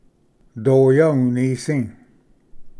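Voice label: "doya unisi", Cherokee, Pronunciation; ᏙᏯ ᎤᏂᏏ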